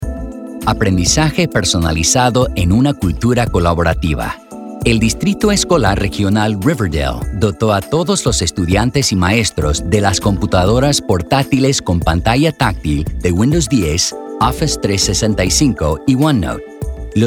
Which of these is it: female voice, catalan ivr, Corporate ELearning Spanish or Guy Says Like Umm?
Corporate ELearning Spanish